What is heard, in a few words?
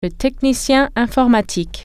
"computer technician", French